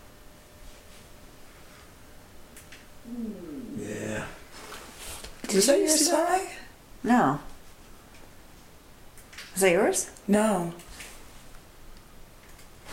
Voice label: says yeah unexplained noise then male wtf